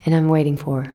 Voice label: "waiting", shouts; Best Service; Hyper Dance